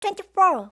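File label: "twenty-four", English; eng suuji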